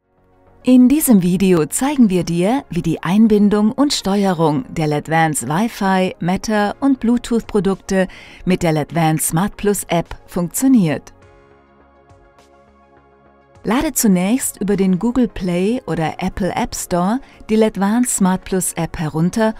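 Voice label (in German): Erklärfilm, Sprecherin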